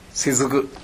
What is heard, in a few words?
"sezuku", minamiyamagata